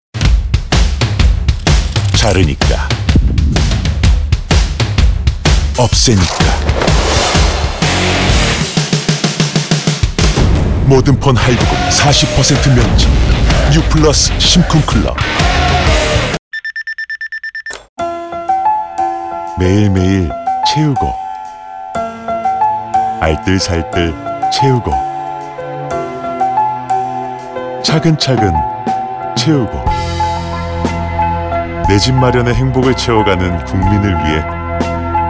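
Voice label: Korean male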